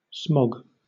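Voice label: "smog", British English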